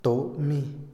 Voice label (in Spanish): aplastar, Otomí, hombre; INALI